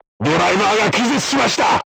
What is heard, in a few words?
voice message driver contused, crew tanks japanese